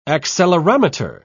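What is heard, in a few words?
"accelerometer", English, British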